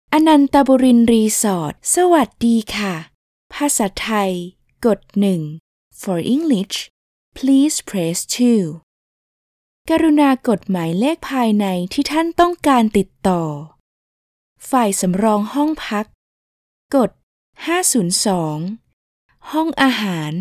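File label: thai interactive voice response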